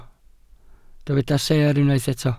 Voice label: "seer", Welsh